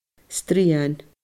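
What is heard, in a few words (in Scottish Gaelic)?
"srian", Scottish Gaelic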